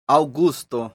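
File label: "aŭgusto", esperanto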